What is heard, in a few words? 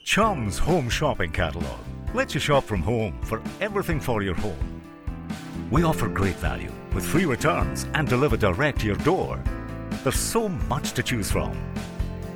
male English Scottish home shopping catalogue commercial; chums